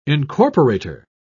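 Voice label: "incorporator", English, British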